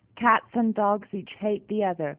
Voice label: Female G729